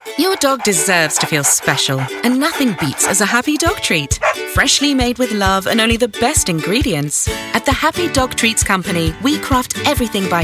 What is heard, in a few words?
radio demo reel